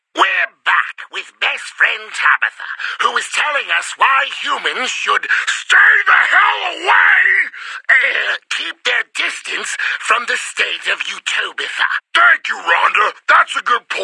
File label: PS Announcement Two